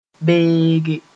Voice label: "beege", moore